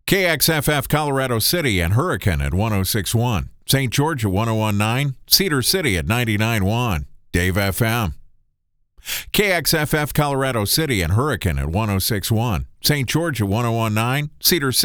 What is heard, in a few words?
Male Shorts VO, DRY; imaging